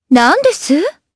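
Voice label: Vox Sur Japanese; Shamilla; kings raid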